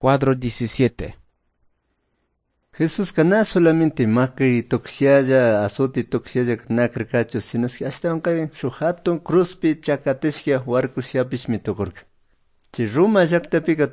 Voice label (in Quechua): good news quichua cayambe